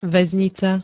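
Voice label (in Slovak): "vaznica", Slovak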